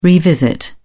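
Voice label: "revisit", British English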